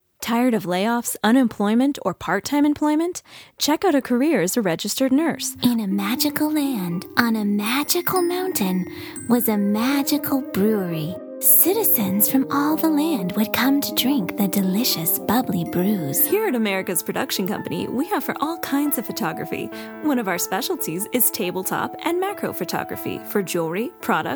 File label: Commercial, princess voice over; professional princess voice over actress new york city